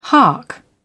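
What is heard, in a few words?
"hark", British English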